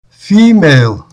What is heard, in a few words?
female